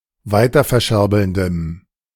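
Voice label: "weiterverscherbelndem", German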